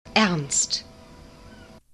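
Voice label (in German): "ernst", German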